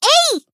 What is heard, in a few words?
Battle Shout; Kotori